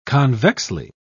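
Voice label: "convexly", British English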